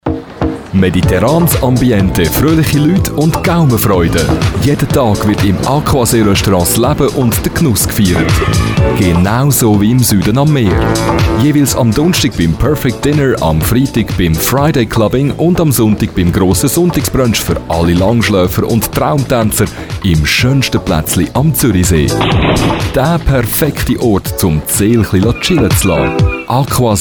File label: Radio